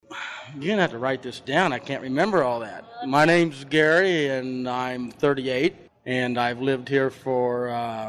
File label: Montana man 38 monologue